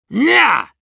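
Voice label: Demoman no, Russian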